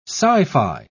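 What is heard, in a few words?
"sci-fi", spoken word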